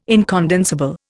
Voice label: "incondensable", Indian

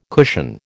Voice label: "cushion", American

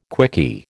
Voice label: "quickie", American

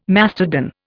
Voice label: "mastodon", Indian

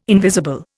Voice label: "invisible", Indian

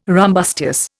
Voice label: "rumbustious", Indian

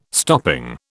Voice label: "stopping", British

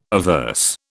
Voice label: "averse", British

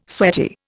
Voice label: "sweaty", Indian

